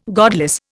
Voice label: "godless", Indian